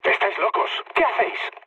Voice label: player killed ally, mood low, speech; War Thunder

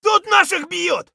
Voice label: friendly down; Escape from Tarkov; scav4

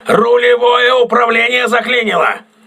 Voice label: voice message rudder damaged, crew ships russian

